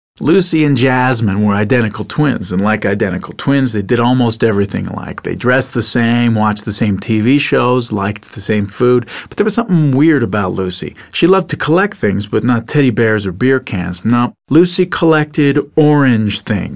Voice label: voice-over